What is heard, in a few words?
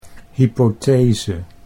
"hypothese", Dutch